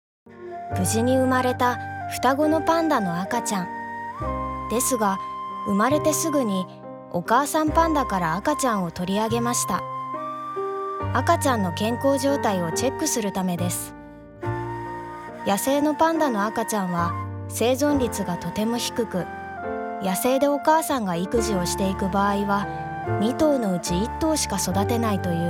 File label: animal documentary narration